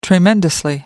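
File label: "tremendously", British English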